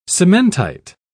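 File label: "cementite", British English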